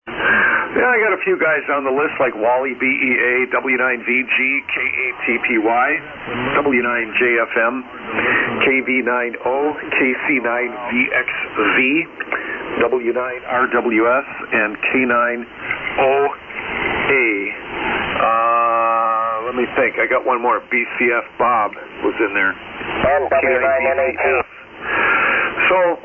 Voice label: SSB Net Check Ins